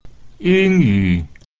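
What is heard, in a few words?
"ying1yu3", romanized Mandarin; English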